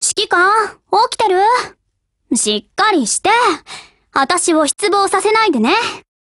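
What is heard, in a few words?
HELLO Japanese; SR3MP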